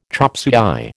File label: "chopsuey", American